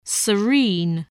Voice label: "serene", spelling

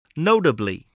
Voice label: "notably", voice, spelling

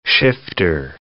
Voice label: "shifter", English

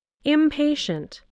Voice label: "impatient", voice

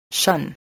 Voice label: "shun", spelling

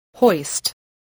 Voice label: "hoist", English, spelling

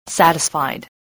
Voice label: "satisfied", english spelling

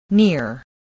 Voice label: "near", voice

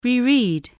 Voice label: "reread", English

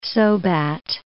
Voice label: "Sobat", English

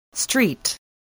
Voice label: "street", spelling

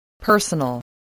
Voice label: "personal", voice, English

